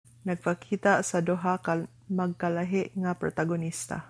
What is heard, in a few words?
Cebuano